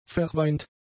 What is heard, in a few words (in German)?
"verweint", German; adjectives